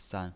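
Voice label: "san", Mandarin Chinese pinyin voice, neutral tone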